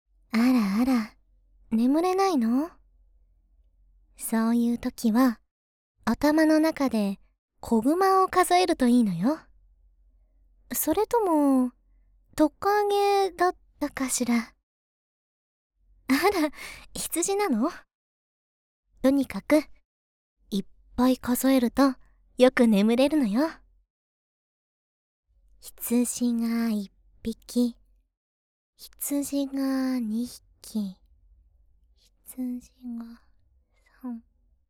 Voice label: Female